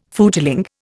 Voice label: "footling", Indian